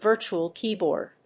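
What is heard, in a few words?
"virtual keyboard", English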